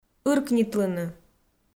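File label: "ыркнитлыны", Komi, female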